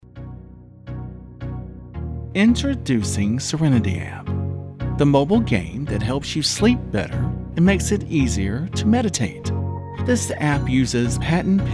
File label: Explainer VO